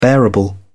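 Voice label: "bearable", English, British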